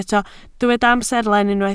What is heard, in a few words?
"amserlen", Welsh